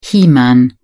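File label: "he man", British English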